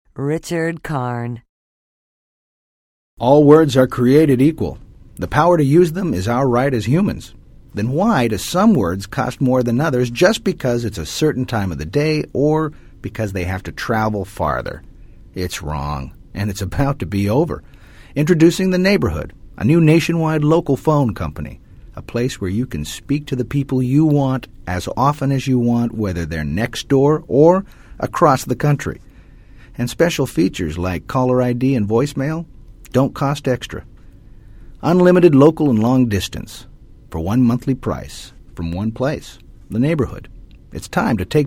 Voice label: commercial; Innovative LA